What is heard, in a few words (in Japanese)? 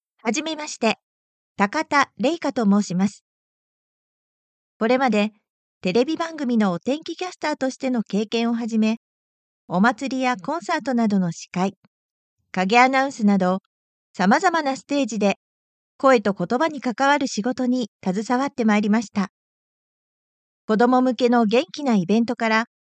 司会自己紹介